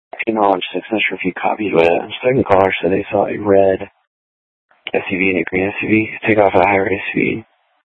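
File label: Sheriff, Parks, Sheriff radio scanner; Maricopa County (P25) - Thompson Peak; Shrf Mnt (Parks)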